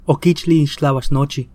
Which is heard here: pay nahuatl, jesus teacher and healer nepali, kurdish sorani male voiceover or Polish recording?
pay nahuatl